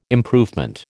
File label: "improvement", American